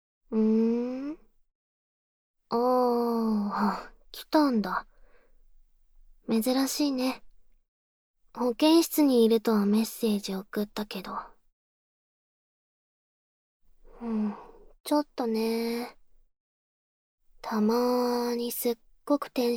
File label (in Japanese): 学校の保健室で耳舐め囁きセックス; ASMR; SE無し